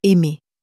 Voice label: "aimer", french